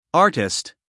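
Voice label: "artist", American English, male; everyone-can-use-english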